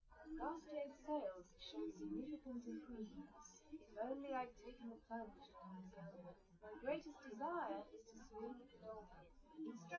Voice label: test female live rec; SiSEC11; underdetermined